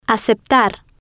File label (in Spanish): "aceptar", spanish